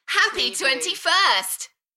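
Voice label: British female Happy 21st Enthusiastic; beanstalk audio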